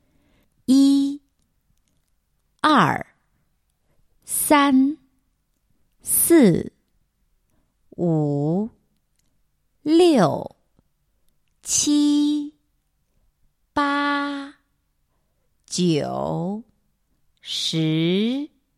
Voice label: count in chinese one to ten